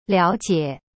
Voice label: "了解 liao jie", Chinese